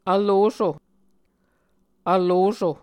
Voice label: "vloso", muscogee